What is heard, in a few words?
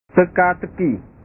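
"teka teki", Indonesian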